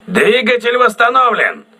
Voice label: voice message engine repaired, crew ships russian